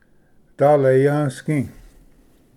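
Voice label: "daleyvsgi", Cherokee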